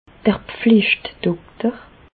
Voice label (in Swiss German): "pflichtdokter", Bas Rhin; medecine; médecin référent